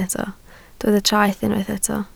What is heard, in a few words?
"treth", Welsh